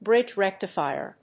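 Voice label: "bridge rectifier", English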